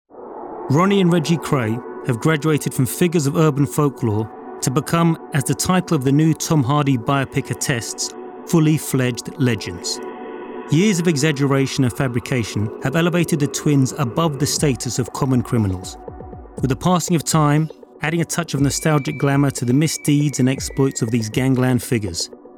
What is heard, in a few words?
Narration Mix